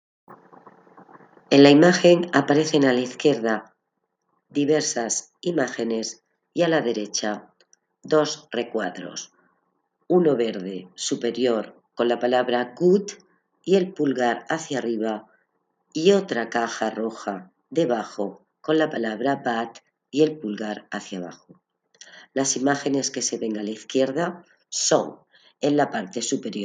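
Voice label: count to five in french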